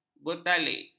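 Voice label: "bertaklid", Malay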